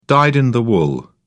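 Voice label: "dyed in the wool", British English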